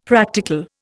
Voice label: "practical", Indian; Dictionary

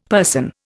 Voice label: "person", Indian; Dictionary

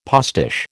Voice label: "postiche", American

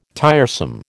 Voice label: "tiresome", American

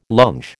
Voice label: "longe", American

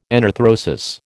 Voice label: "enarthrosis", American

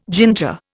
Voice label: "ginger", Indian

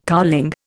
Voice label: "cowling", Indian

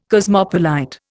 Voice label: "cosmopolite", Indian

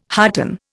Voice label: "hearten", Indian

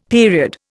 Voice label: "period", Indian; Dictionary

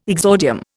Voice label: "exordium", Indian

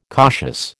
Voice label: "cautious", American

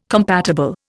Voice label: "compatible", Indian

